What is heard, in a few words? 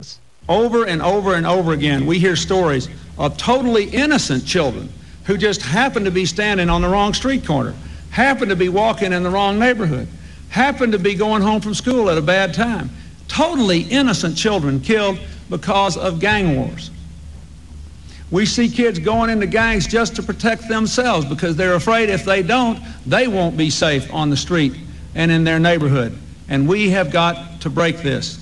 Clinton informal, Arkansas dialect